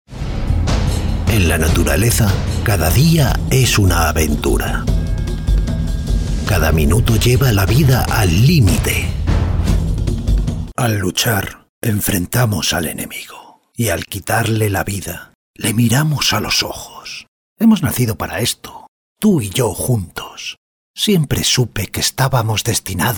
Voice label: Narrator